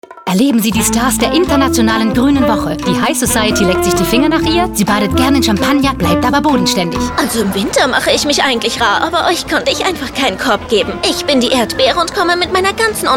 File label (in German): radio spot erdbeere; grüne woche 2017